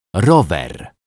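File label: "rower", Polish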